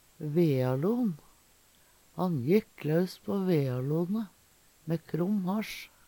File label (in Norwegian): "vealon", Numedalsmål